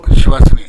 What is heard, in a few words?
"sewasune", minamiyamagata